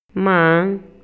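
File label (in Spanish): Maya, Yucatán, male; MOVIMIENTO; INALI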